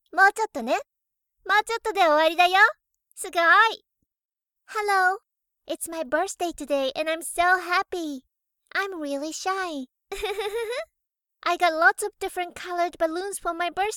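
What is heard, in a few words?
anime video games voice-over Japanese English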